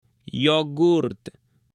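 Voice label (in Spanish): "yogurth" Spanish, female; ALIMENTOS; AppLenguaje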